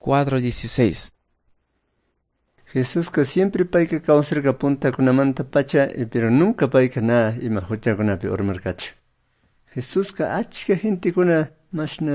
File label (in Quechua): good news quichua cayambe; quechua; bible